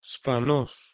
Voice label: "sparnos" Ancient Greek

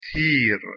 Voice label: "pir", Persian

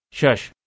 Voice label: "shesh" Balochi, 6

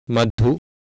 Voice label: "madhu", Sanskrit

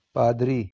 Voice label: "pardri", Urdu

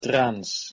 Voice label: "trans", Latin

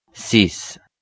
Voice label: "six", French

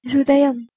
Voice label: "hrdayam", Sanskrit

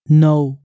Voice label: "nou", Nepali

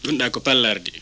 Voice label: Fula

